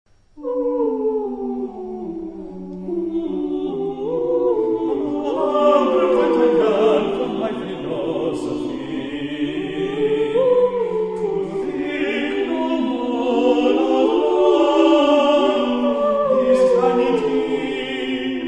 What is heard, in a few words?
Sanskrit Verses